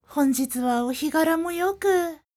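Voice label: female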